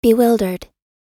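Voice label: "bewildered", American English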